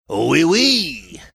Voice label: saying oui oui french